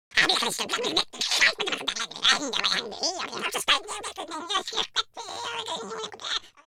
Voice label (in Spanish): angry, Spanish; speech